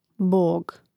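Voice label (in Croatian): "bog", Hrvatski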